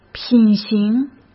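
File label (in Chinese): "品行", Mandarin Chinese; hsk; chinese-flashcards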